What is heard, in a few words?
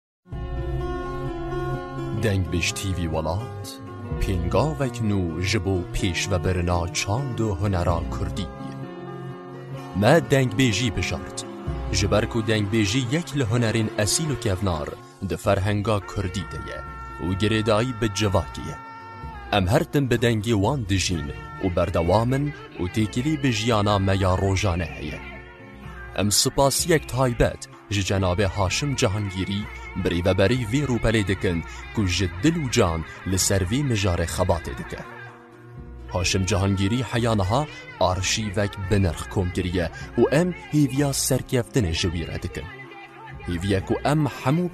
Kurdish Kurmanji Commercial